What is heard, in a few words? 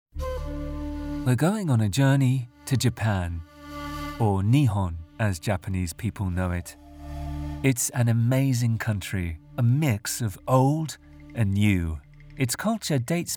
Documentary Narration; Japan